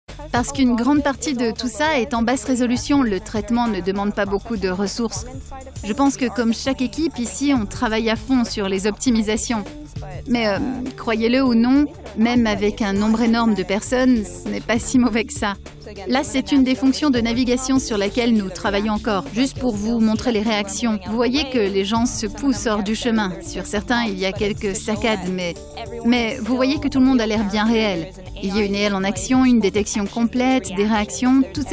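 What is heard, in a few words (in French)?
voice over jeu video voix off, voix off femme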